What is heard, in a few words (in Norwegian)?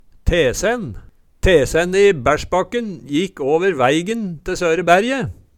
tesenn, Numedalsmål